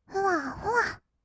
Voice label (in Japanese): ふわふわ, kawaii voice; ASMR; 色々なワンショット